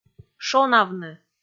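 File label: "шонавны", Komi, female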